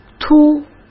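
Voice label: "凸", Mandarin Chinese; chinese-flashcards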